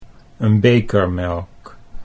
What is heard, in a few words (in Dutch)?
"een beker melk", Dutch